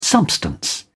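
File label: "substance", British English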